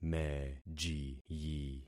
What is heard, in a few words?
"mejiyi", concat syllables; Affixing experiment